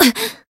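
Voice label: Voice damaged, Japanese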